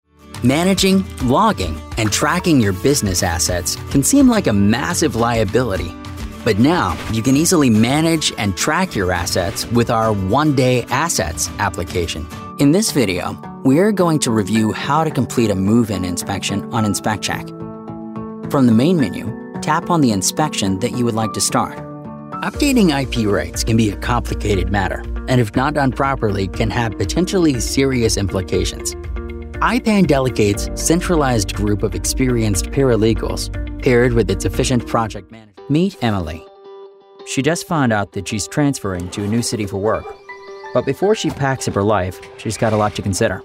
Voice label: Corporate Narration